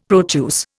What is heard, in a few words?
"Proteus", Indian; Dictionary